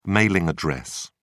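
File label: "mailing address", British English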